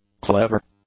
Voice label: "clever", English; adjectives